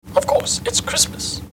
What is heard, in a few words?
phonograph voice